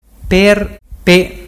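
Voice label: "perpe", Ligurian dialect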